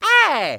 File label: "hey", Voice